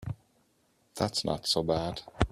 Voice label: male; gender identification